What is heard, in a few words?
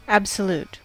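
"absolute", American English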